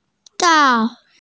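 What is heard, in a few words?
"dao4", Mandarin Chinese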